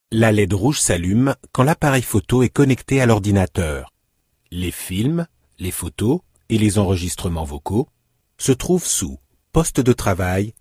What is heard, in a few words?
fr elearning